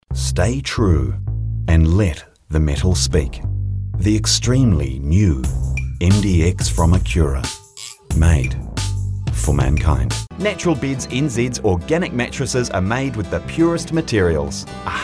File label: Voice Actor; BigMouth Voices